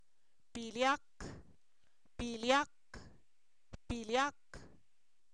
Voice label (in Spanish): cuánto, Zapoteco, san miguel albarradas; preguntas